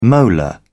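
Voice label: "molar", British English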